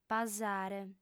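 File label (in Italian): "pasare", lingua sarda, fem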